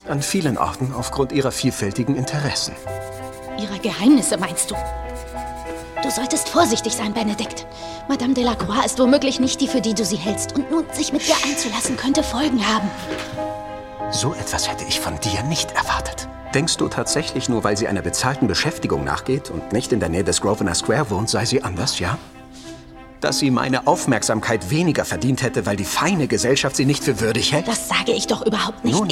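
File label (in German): Synchron, Männer; Bridgerton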